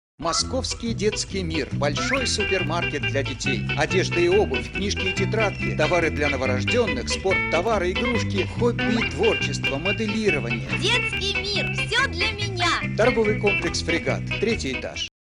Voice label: russian listening novice